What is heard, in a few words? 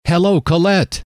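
"hello", male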